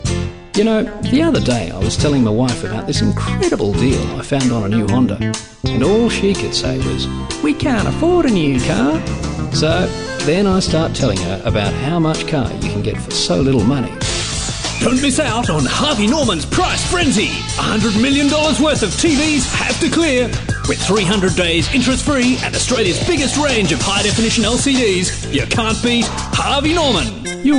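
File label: English Australian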